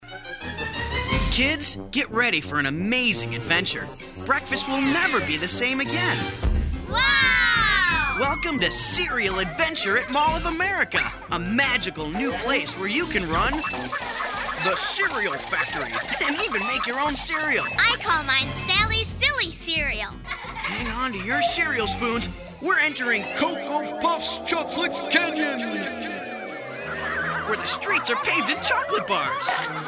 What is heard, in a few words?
Energetic